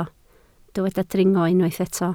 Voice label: "dringo", Welsh

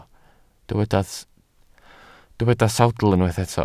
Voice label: "sawdl", Welsh

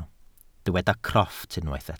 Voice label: "crofft", welsh

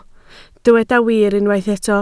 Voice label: "wir", Welsh

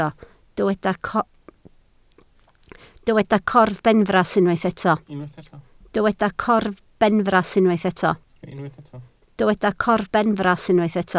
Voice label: "corbenfras", Welsh

